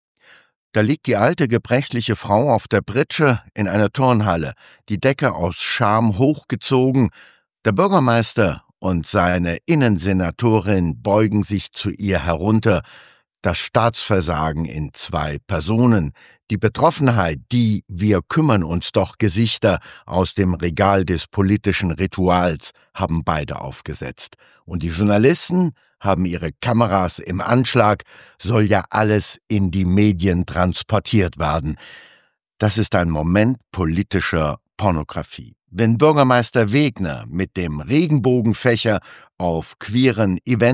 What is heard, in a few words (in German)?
Kommentar; Kontrafunk aktuell